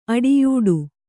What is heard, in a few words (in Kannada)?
"ಅಡಿಯೂಡು", Kannada